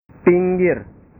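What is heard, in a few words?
"pinggir", Indonesian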